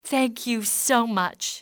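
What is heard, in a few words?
thank, english female; XBOX; civ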